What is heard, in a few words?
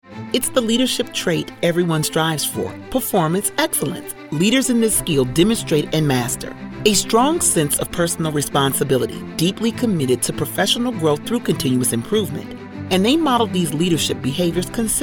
Narration Sample